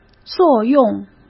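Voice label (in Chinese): "作用", Mandarin Chinese; hsk; chinese-flashcards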